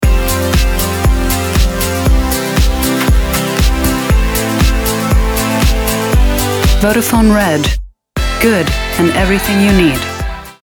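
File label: us englisch, US English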